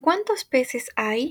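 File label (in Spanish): "peces", Spanish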